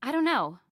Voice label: Respond Don't Know, Female; Female 02